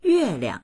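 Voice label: "yue4liang", Mandarin Chinese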